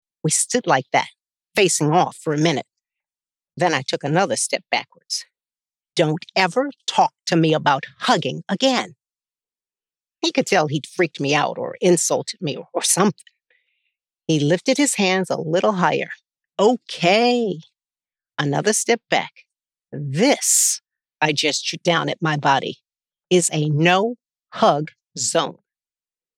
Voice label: Romance 1F 1M